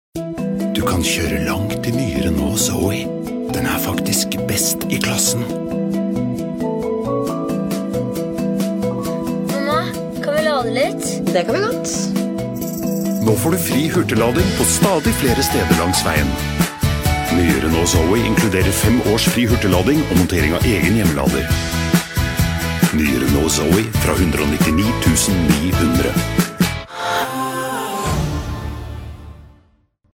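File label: Norwegian, male, explainer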